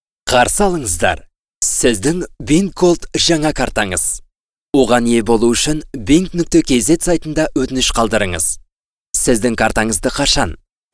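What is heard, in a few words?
male Kazakh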